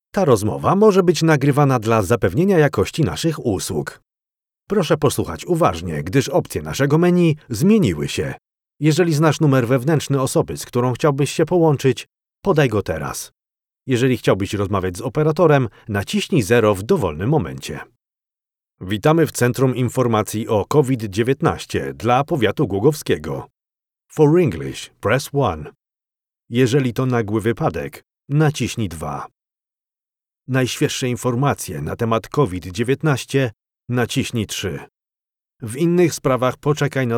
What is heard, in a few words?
IVR Telephony Polish